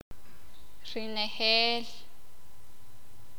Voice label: "todo", sakapulteko

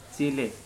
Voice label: "chicken", Korku

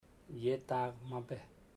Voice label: he doesnt eat alot, chamacoco; Bahia Negra

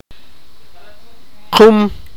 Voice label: sipakapense; drink

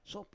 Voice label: "sop", Roon

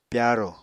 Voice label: "pyarò", Apatani

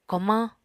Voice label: "komañ", Apatani

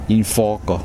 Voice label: ground, Kosraean

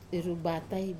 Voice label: "rubatai" lift, Wamesa

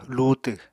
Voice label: "luutw", Apatani

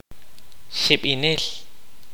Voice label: kaqchikel; Ghost

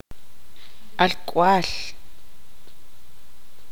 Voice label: tzutujil; hijo de hombre

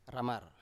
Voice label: "ramar", Biak

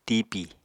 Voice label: "tipi", apatani

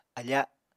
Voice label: "afa", apatani

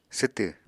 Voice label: "swtw", Apatani

